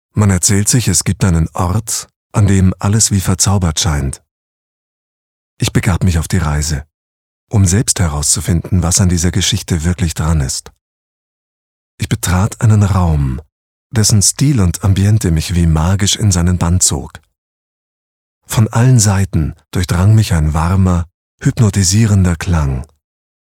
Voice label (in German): Schauspiel Neutral